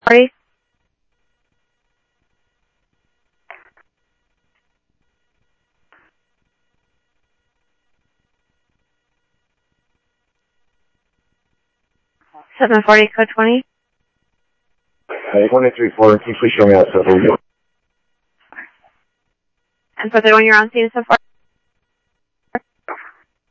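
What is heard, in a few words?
Sheriff East County, scanner audio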